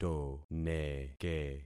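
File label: "toneke", concatenated syllables; Affixing experiment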